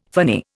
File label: "phony", Indian; Dictionary